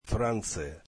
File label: russian France